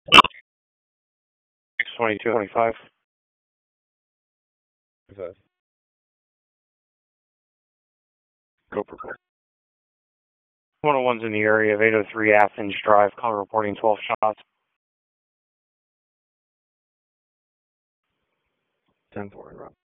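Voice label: Raleigh Police Southwest District Dispatch, p25; wake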